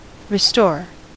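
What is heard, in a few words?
"restore", American English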